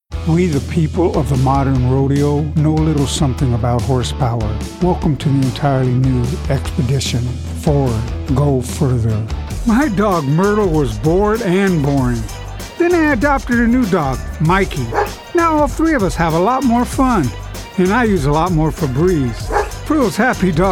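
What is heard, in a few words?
Commercials, The Coolest Voice in the Room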